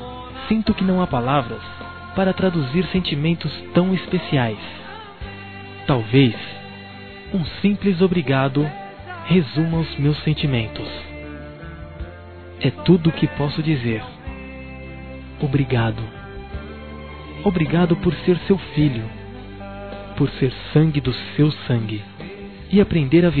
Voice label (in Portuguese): NATAL E ANO NOVO MÃE, masc; Misto